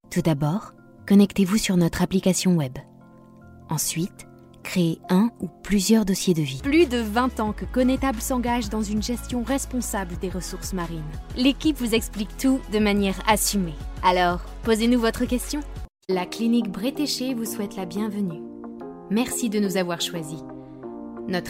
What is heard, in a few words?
French VO